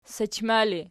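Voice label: sachma ergative, Archi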